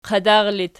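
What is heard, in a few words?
"qadar" locative, Archi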